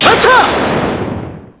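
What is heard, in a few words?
"hold it", Japanese; phoenix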